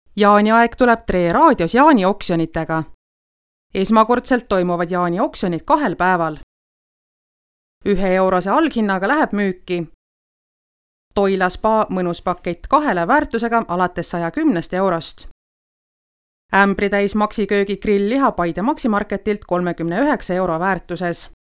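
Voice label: estonian female narration; voice demos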